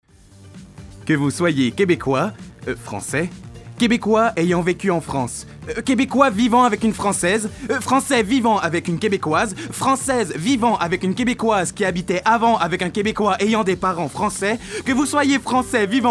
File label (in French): Voix TV; TV5